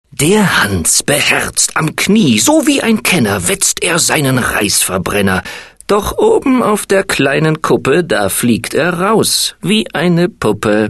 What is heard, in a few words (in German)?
radiospot; bikersproject